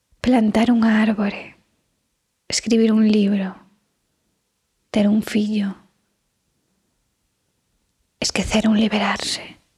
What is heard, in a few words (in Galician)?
galego